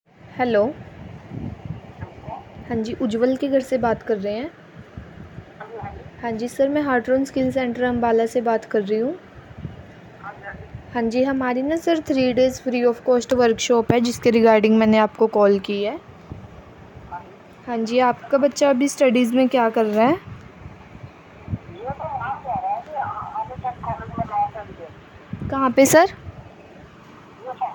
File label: call recording